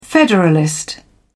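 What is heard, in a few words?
"federalist", British English, dictionary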